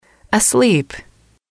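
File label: "sleep", English, female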